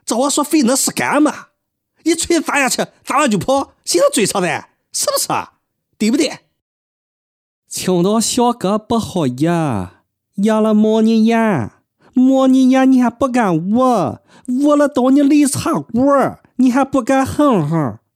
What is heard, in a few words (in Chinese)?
男 模仿 青岛话